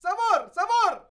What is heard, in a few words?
MEXICO VOICE, MEXICAN; ILIO; Vocal Planet VOL-5